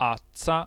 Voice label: voiceless alveolar affricate; MycroftAI; mimic3; phonemes